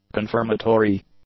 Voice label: "confirmatory", English; adjectives